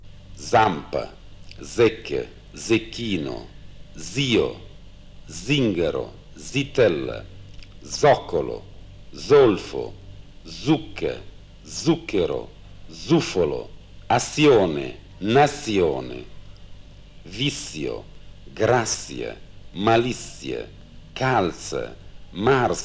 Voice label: Z Bologna

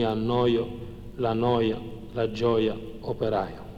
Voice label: Semivocale I Pescara

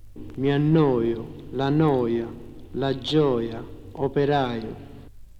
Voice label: Semivocale I Napoli

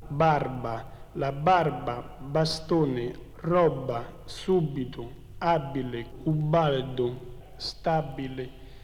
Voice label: Labiali Roma

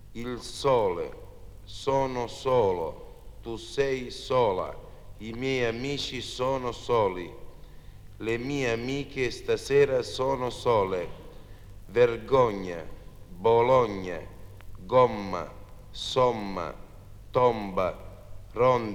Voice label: O chiuso Catania

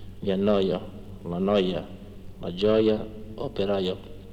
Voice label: Semivocale I Bari

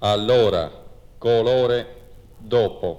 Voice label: O chiuso Cagliari

